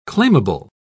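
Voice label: "claimable", British English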